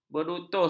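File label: "berutus", Malay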